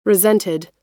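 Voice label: "resented", US English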